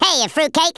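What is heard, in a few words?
"oi nutter" spoken, Redneck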